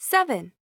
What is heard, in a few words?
English Number